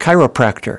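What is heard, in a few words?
"Chiropractor", American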